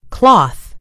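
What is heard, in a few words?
"cloth", American English; gcompris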